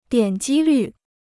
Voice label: "点击率", Chinese

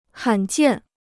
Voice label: "罕见", Chinese

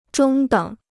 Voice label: "中等", Chinese

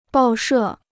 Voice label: "报社", Chinese

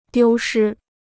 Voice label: "丢失", Chinese

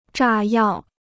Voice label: "炸药", Chinese

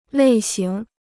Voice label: "类型", Chinese

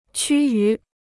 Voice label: "趋于", Chinese

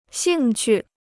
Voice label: "兴趣", Chinese

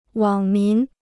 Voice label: "网民", Chinese

